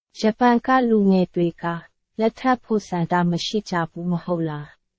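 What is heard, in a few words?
Myanmar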